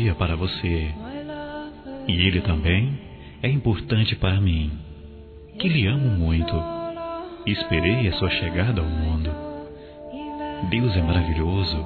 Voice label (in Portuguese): ANIV. FILHO DISTANTE M